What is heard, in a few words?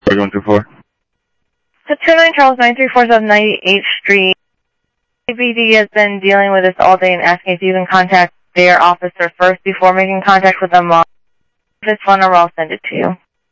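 Sheriff East County, scanner audio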